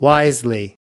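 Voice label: "wisely", US English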